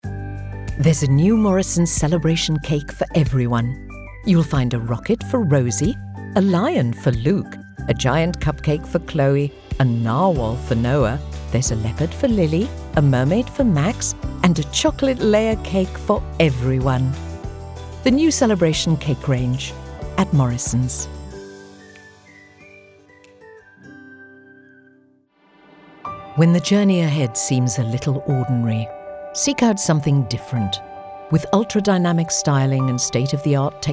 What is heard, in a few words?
Commercial Reel